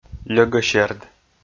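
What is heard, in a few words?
"льӧгӧсерд" Komi, male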